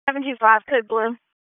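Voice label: Sheriff's Office Dispatch; WSO DISP 1; wake; p25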